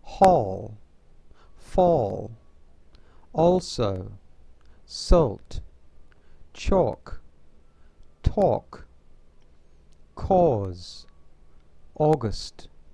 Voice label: AW BRITISH, VOWEL SOUNDS; VOWEL SOUNDS & CONSONANT BLENDS